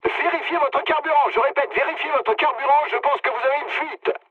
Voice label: tank damaged mood med, speech fr; War Thunder